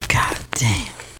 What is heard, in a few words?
god damn whisper, expletives